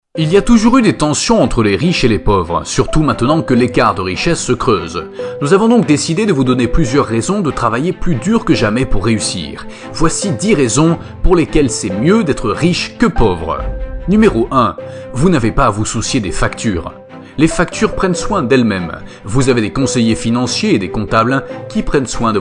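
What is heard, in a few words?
French, male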